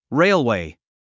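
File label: "railway", English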